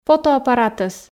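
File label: "фотоаппаратӧс", Komi, female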